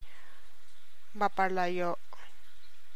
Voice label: TAGALOG